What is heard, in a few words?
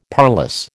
"parlous", American